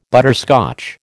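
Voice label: "butterscotch", American English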